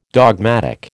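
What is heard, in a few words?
"dogmatic", American English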